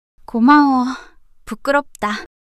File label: shy